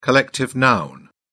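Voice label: "collective noun", British English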